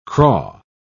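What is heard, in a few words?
"craw", English, British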